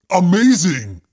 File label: Amazing Deep Voice